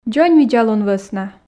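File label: "дзоньвидзалун вӧсна", Komi, female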